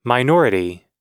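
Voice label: "minority", American English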